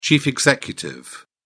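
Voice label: "chief executive", British English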